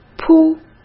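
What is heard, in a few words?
"铺", Mandarin Chinese; chinese flashcards; hsk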